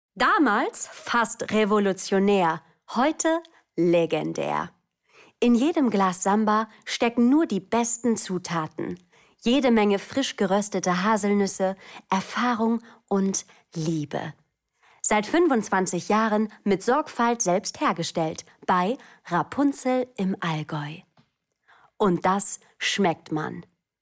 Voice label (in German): Frau OFF